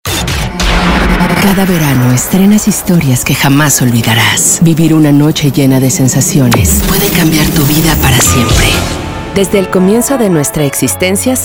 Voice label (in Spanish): Promo Español TV Radio